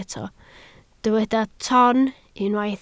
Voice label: "toon", Welsh